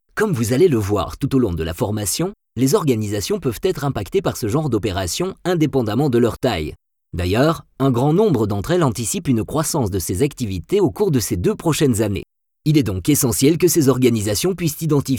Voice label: E-LEARNING; IRON MOUNTAIN